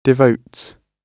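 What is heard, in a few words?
"devotes", British English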